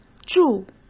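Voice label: "祝", Mandarin Chinese; chinese flashcards; hsk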